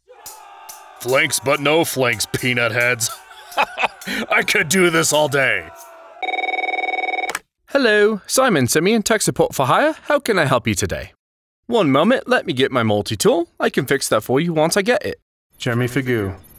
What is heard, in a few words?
Character